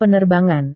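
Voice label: "penerbangan", Indonesian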